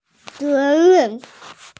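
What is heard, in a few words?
"ze ren" Chinese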